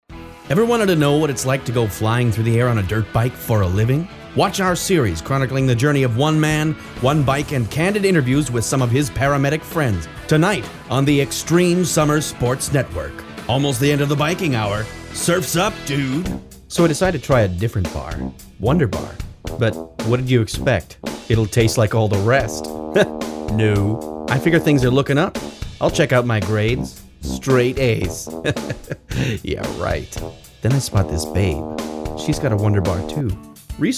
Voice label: Commercial